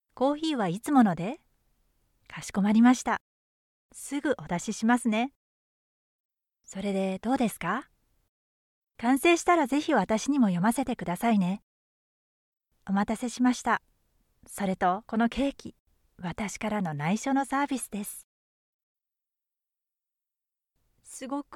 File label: indonesian animation